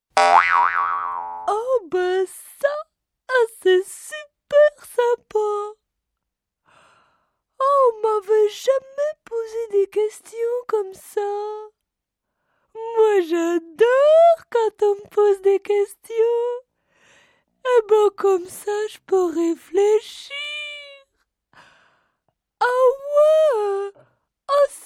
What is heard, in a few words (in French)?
Ahurie